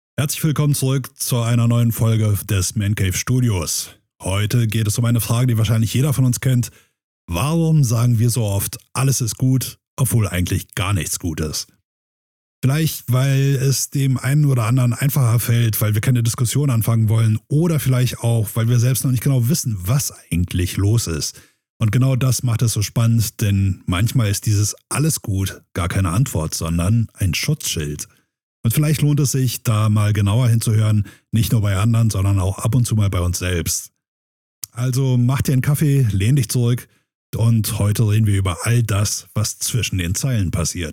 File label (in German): Podcast Sprachdatei; Sprecherprofil